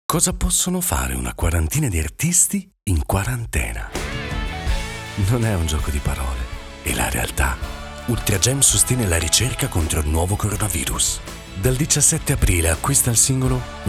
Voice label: spot